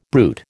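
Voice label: "bruit", American English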